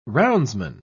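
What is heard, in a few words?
"roundsman", British English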